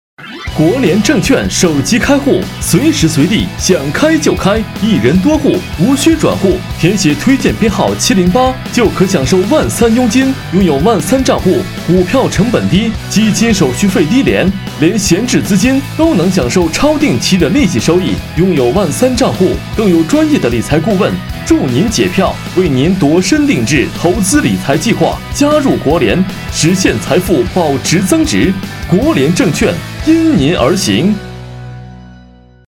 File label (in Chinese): 促销 证券男; 国联证券